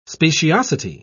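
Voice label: "speciosity", English, British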